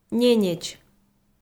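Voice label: "ненеч", Komi, female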